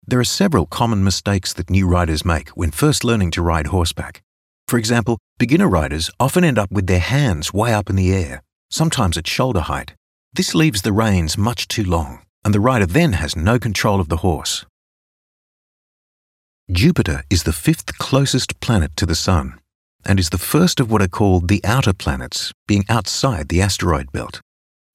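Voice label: English Australian, male, narration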